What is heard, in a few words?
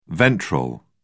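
"ventral", British English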